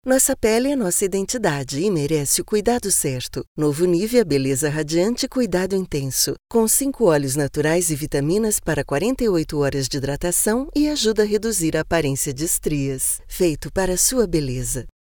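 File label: Brazilian Portuguese, female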